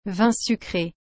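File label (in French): "vin sucré", French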